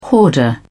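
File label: "hoarder", British English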